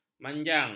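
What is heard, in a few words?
"manjang", Malay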